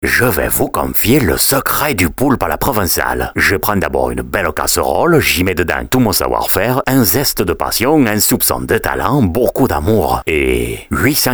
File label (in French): Voix Hom Accent Marseillais